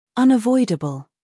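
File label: "scandal", American; unavoidable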